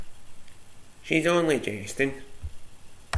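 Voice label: "jestin", slang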